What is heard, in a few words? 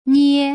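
"nie", Putonghua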